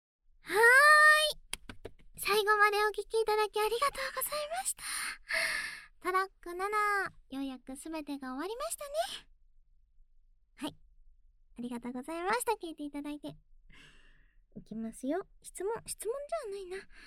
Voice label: FREE TALK, ASMR

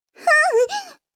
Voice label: h voice; おっぱい図鑑

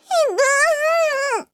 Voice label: h voice; おっぱい図鑑

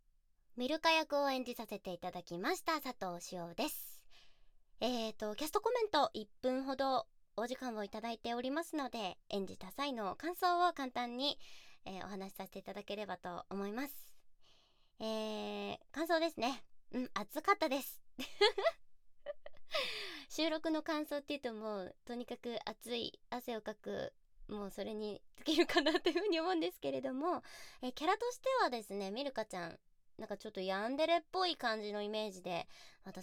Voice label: freetalk, ASMR

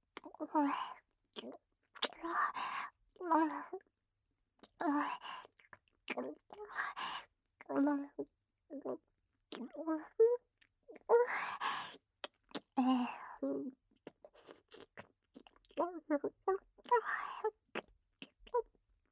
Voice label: ASMR; SE無し; 耳舐め射精管理【キス・ディープキス・お耳いたずら・手こき・耳舐め手こき(射精管理)】

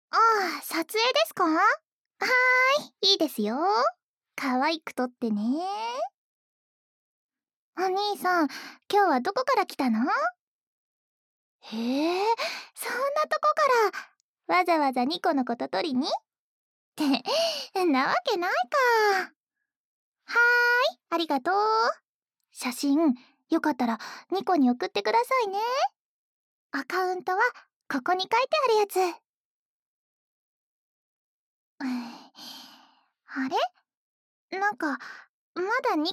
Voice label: voice + 指, 効果音 液体音無し; 即おち！

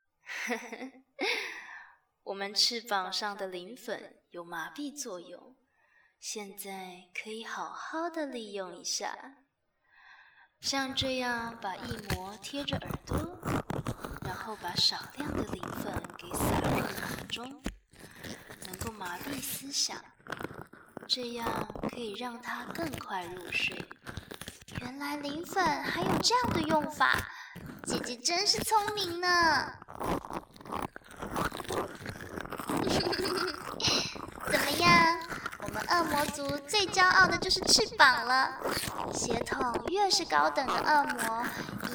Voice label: Demon wing powder hypnosis

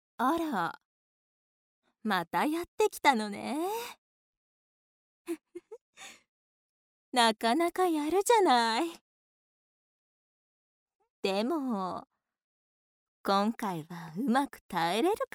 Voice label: ASMR

